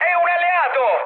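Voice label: Italian player friendly fire mood med, speech; War Thunder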